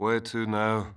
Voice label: Male; baldurs1